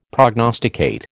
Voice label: "prognosticate", American

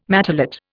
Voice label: "matelot", Indian

